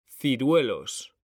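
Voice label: "CIRUELOS", Spanish; mekanta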